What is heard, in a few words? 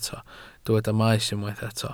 "maes", Welsh